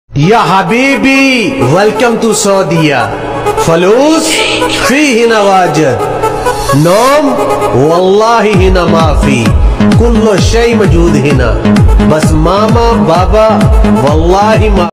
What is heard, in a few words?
dialogue ringtone